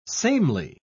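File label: "samely", British English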